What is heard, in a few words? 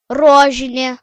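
pink, Serbian; gcompris-data; colors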